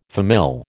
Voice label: "famille", American